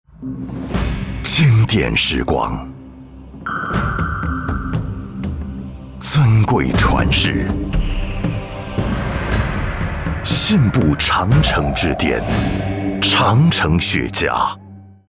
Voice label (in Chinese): 男 中文版, 国语男声配音